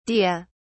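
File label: "dea", en, British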